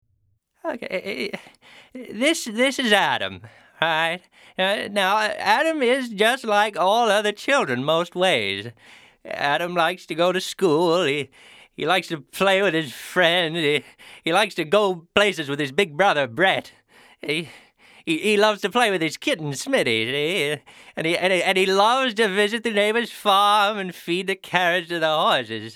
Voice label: narration